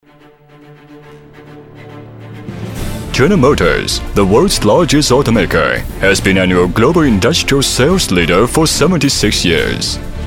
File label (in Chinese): 英男 专题 汽车; 通用汽车